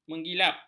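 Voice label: "menggilap", Malay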